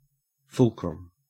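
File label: "fulcrum", Australian English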